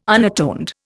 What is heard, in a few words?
"unatoned", Indian